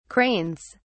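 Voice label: "cranes", British English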